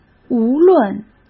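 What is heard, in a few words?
"无论", Mandarin Chinese; hsk; chinese-flashcards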